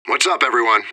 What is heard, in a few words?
radio bot report; fbihrt